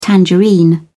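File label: "tangerine", British English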